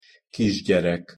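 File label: "kisgyerek", Hungarian pronunciation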